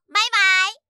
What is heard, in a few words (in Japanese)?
バイバイ, ASMR